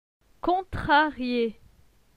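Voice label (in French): "Contrarie", French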